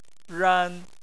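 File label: "ran", Chinese, tone 1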